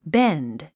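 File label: "bend", English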